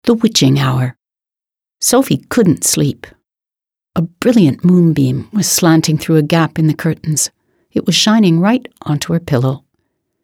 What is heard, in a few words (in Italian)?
narrativa